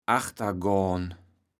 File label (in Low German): "achtergohn", kaevels platt